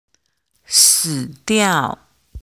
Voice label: Chinese pronunciation zi ci si